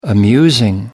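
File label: "amusing", British English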